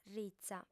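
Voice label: "ritza", female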